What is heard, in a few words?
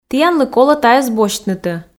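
"тіянлы колӧ тайӧс босьтнысӧ", Komi, female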